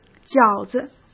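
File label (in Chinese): "饺子", Mandarin Chinese; hsk; chinese-flashcards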